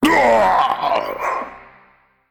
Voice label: Male Mech Death

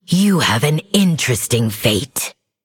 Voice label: Female Undead Emote Picked

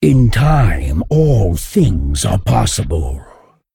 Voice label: Male Dragon

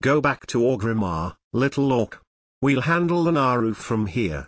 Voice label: male dwarf

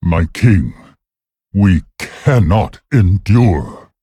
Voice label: Male Worgen

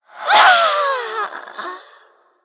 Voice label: Female Human Ghost Death

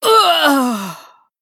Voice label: Female Troll Death

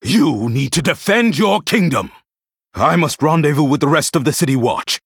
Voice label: Male Human, Victory; Cornelius; Cariel

